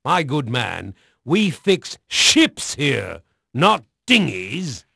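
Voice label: English male; Pirates Of The Caribbean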